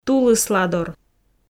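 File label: "тулысладор", Komi, female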